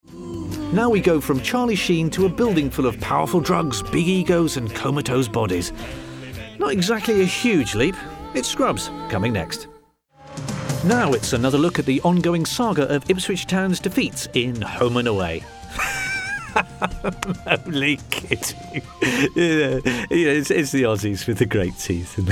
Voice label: continuity M